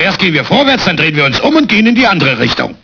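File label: "vorwärts", German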